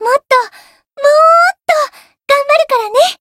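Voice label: Voice growth, Japanese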